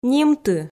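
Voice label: "нимты", Komi, female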